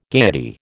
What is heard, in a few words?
"gayety", American